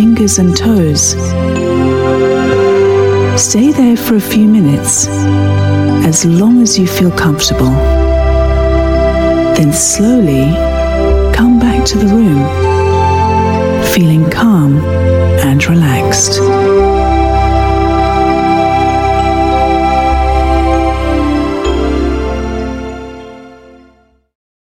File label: UK English Relaxation